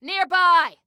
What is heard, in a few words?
Warn Enemy Nearby, Female